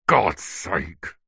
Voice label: human male grumpy angry frustrated says for gods sake; zapsplat